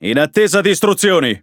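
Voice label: Speech Pilots Torpedo, Italian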